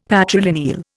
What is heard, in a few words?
"patrilineal", Indian; Dictionary